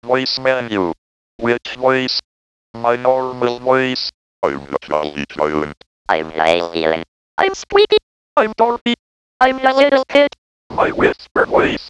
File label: Audapter Voices, synthesizers; Personal Data Systems; vintage-access